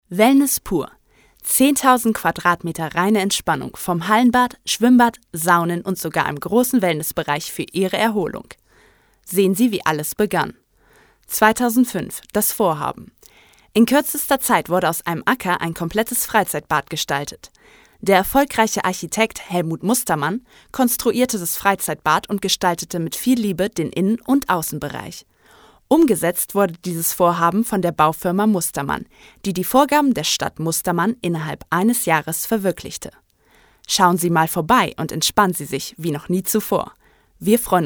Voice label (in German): image deutsch; sprecher